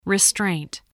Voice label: "restraint", English

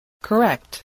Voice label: "correct", spelling

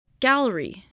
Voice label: "gallery", voice, English

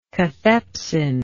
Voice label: "catheptic", English

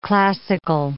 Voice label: "classical", English

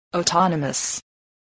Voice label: "autonomous", english

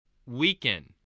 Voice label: "weaken", spelling

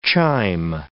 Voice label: "chime", English word

